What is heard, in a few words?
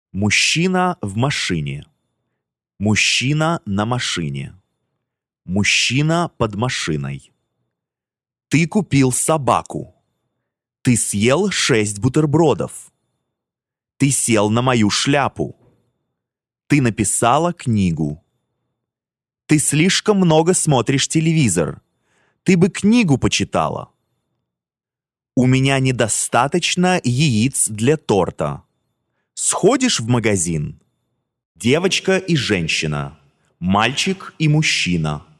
Teaching Russian Pronunciation Articulation; Rosetta Stone